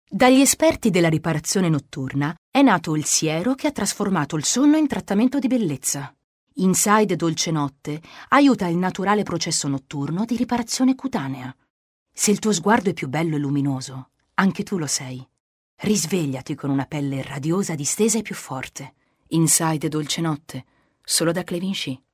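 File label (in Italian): pubblicità crema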